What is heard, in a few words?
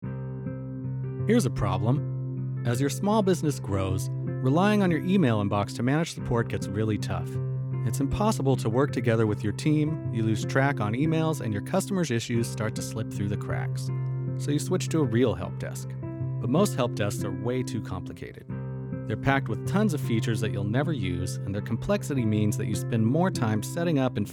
Voice Over